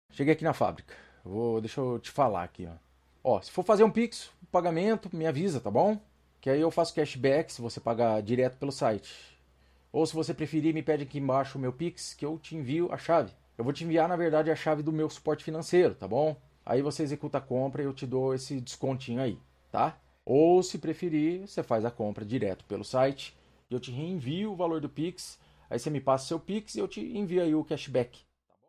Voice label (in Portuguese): voz modelo whatsapp